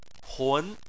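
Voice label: "hun", Chinese, second tone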